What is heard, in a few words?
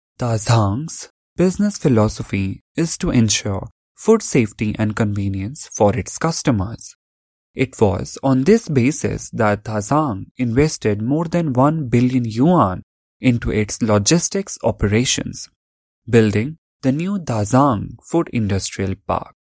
Technology Video Narration